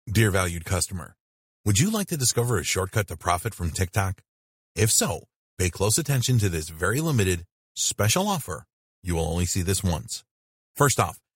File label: Upsell Sales Video Voice Over